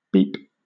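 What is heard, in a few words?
"beep", British English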